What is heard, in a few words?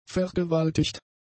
"vergewaltigt", German; adjectives